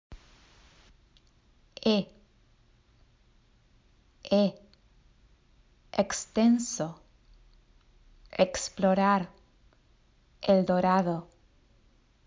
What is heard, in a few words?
Letra E, el alfabeto español; Trayectos